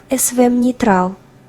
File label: girl